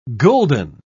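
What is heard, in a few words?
"gulden", British English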